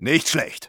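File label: Engineer good job, German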